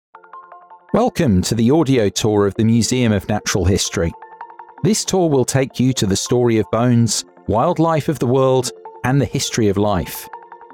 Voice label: Corporate

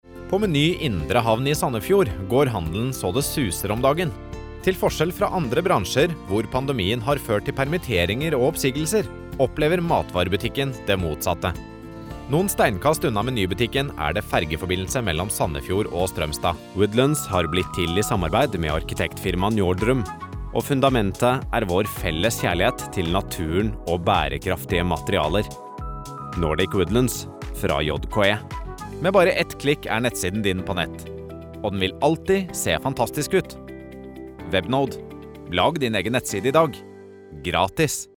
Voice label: Explainer

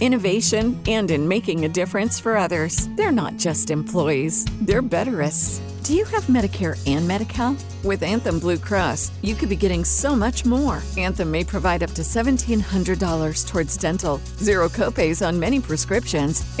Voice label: Commercial Straight Business Health

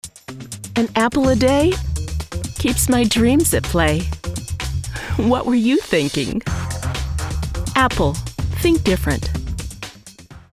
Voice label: Professional - credible - welcoming; Apple

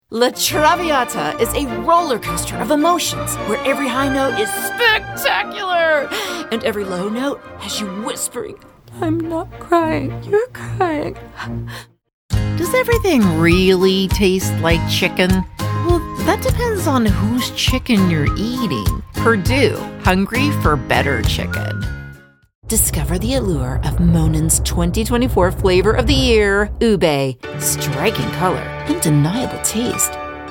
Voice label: Adult Natural Bright

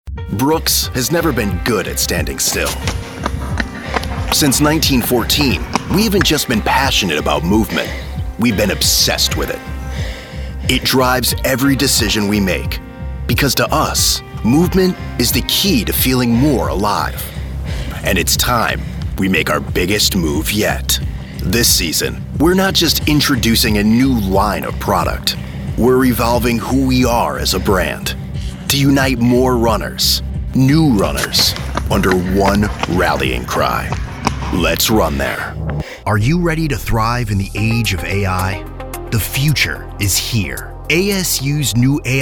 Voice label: Explainer

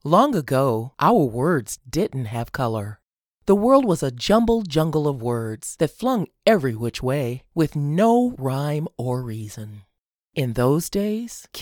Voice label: English Animation

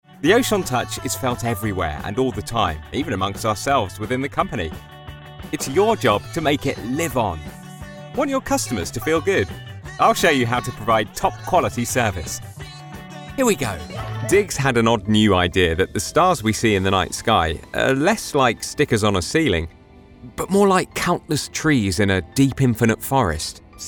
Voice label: elearning

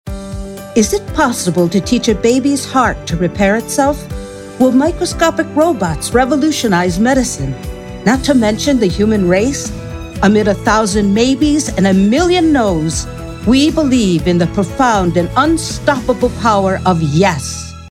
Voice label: medical promotional

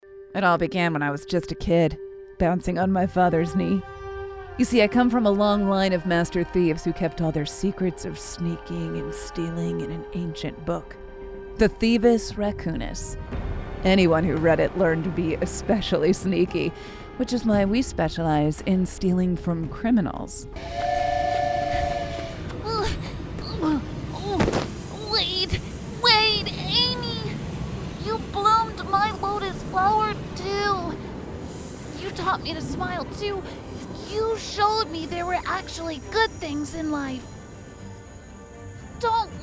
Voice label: Animation

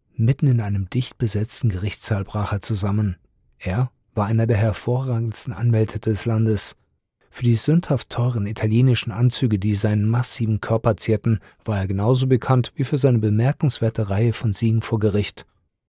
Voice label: Audio Book - Articulate Warm Engaging

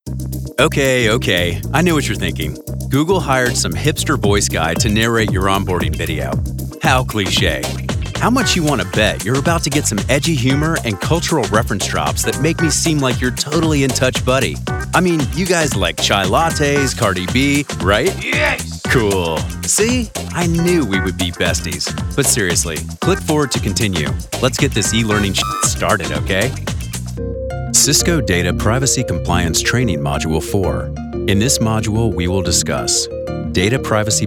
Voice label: elearning

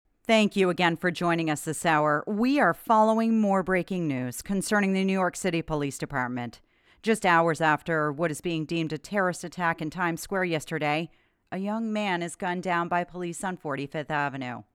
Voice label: News Anchor character

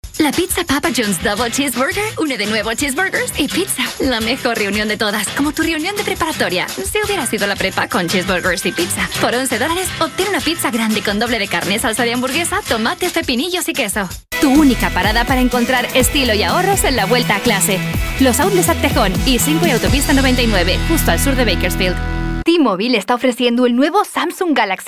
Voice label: Spanish Commercial

